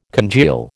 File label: "congeal", American